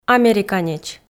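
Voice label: "американеч", Komi, female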